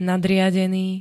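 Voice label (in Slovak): "nadriadený", Slovak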